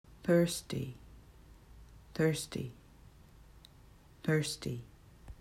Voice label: "thirsty", English